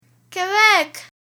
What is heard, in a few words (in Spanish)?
Quebec; transito aereo; alfabeto Aeronautico